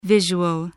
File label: "visual", English